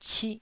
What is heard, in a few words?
"cih1", Taiwanese